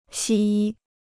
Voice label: "西医", Chinese

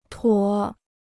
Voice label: "妥", Chinese

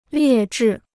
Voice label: "劣质", Chinese

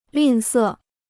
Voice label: "吝啬", Chinese

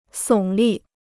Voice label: "耸立", Chinese

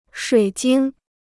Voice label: "水晶", Chinese

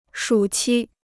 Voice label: "暑期", Chinese

